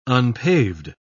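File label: "unpaved", British English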